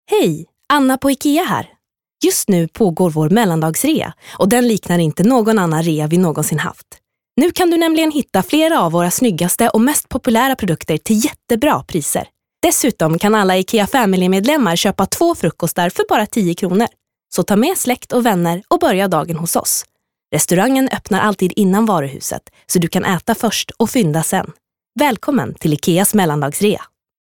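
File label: Swedish, female, commercial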